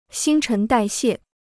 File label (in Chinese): "新陈代谢", Chinese